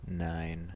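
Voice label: "nine", US English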